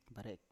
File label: "barek" Biak